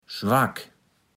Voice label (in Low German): "schwack", Platt